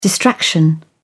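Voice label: "distraction", British English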